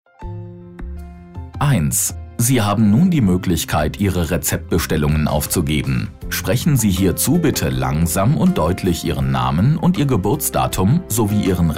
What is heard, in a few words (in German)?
Ansage